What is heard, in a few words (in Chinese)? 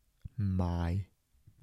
"买" 台城 男